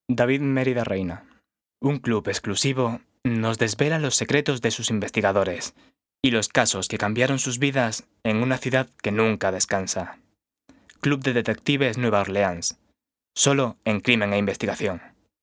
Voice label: Demo Corporativo

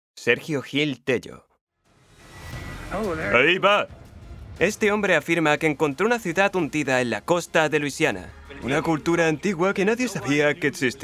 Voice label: Documental

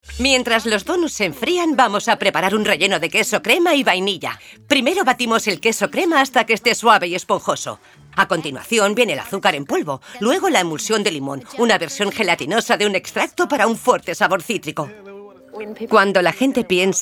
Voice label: voces en espanol

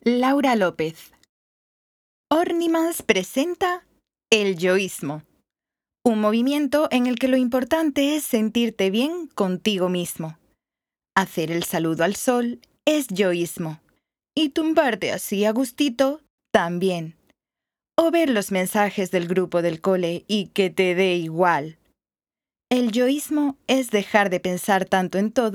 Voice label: Demo Publicidad

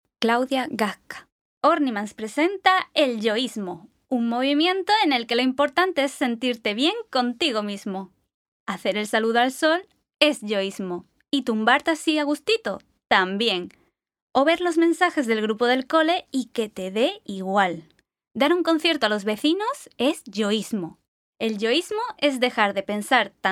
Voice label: Demo Publicidad

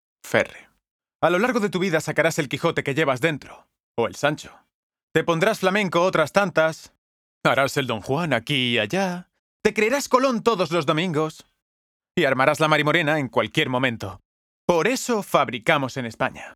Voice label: Demo Publicidad

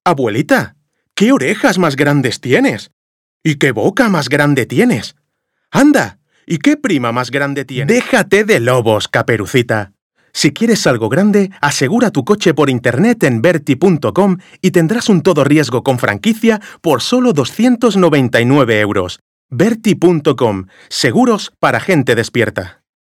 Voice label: publi

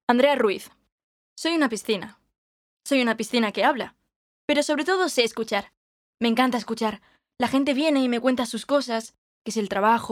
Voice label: Publicidad

